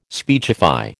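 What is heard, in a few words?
"speechify", American English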